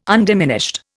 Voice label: "undiminished", Indian